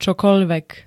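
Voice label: "čokoľvek", Slovak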